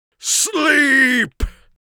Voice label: sleep, Mage Voice; Mage Voice Pack

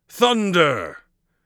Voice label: thunder, mage voice; Mage Voice Pack

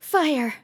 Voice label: "fire", RPG Voice; RPG Voice Starter Pack

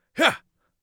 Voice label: light attack, Mage Voice; Mage Voice Pack

